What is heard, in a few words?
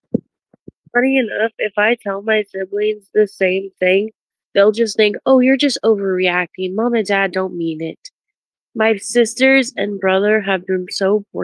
voice message